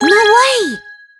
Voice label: exclamation; luke